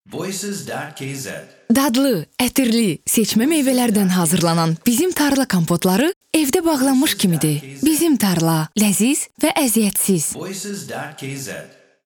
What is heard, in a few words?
Voice aze